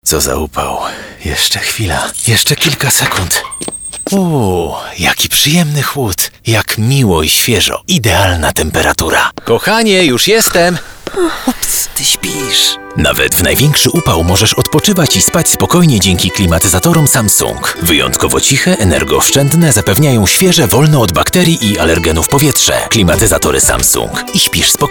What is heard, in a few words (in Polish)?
kobieta; Samsung Klimatyztory